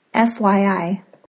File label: FYI pronunciation